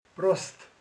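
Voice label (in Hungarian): "mell", Hungarian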